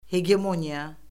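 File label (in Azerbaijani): "hegemoniya", Azerbaijani; Orfoqrafiya Lüğəti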